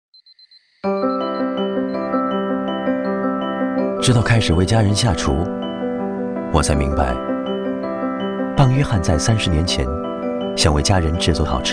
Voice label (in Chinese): 男 广告, 国语男声配音